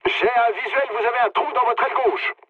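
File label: French left wing mood low; War Thunder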